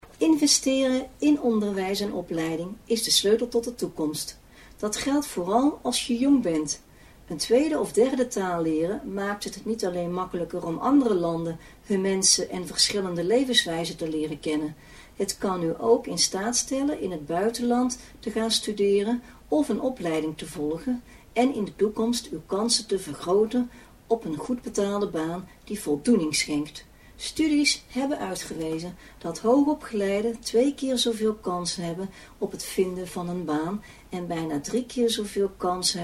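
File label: 1-minute trial dictation Dutch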